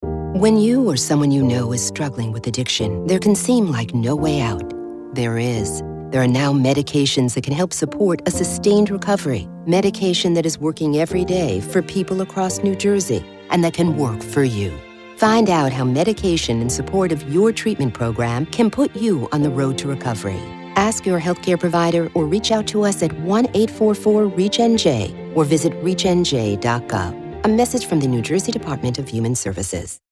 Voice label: Radio